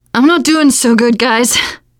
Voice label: going to die, teengirl, voice

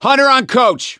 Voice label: hunter pounced, survivor voice; coach; Left 4 Dead 2; gambler

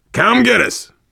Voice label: world small town npc boatman, voice, biker; Left 4 Dead 2; survivor

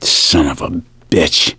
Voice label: reaction negative, voice; gambler

